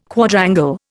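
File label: "Quadrangle", Indian English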